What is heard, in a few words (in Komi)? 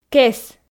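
"кес", Komi, female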